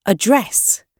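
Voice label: "address", British English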